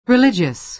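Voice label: "religious", American English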